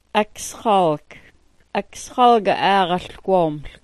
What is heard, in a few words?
"aksxoolga", smalgyax